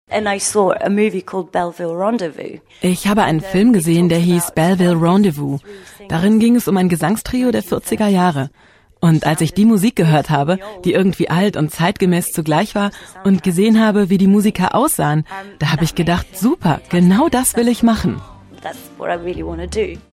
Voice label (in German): deutsch vo kultur